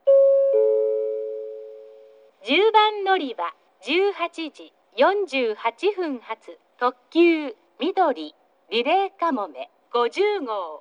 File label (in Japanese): 10番のりば案内放送; みどり・リレーかもめ50号 博多行き; takeo onsen